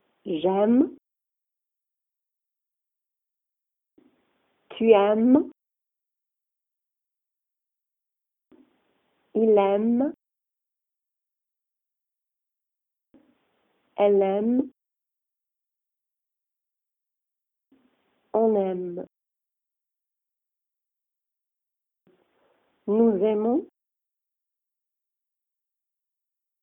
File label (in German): verb aimer mit pausen; franzoesisch